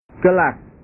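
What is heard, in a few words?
"kelas", Indonesian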